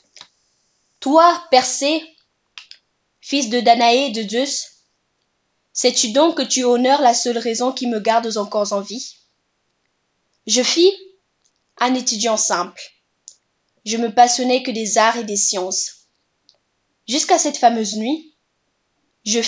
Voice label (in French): tirade